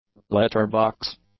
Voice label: "letter box", English; nouns